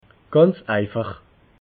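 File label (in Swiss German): "ganz einfach", Alsatian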